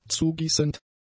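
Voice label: "zugießend", German; adjectives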